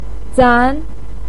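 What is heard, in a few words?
"zan2", Mandarin Chinese pinyin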